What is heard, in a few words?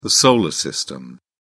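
"solar system", English, British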